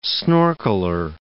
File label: "snorkeler", english